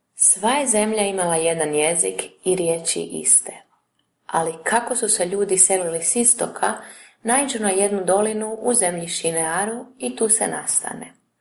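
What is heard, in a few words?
Croatian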